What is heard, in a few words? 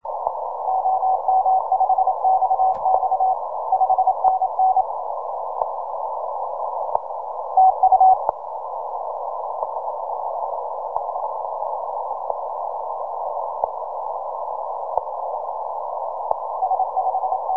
15M CW